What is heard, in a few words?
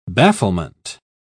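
"bafflement", British English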